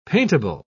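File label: "paintable", British English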